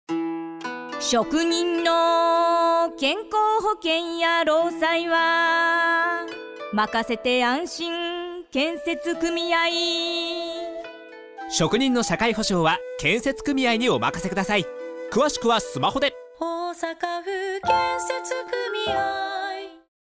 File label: radio; osaka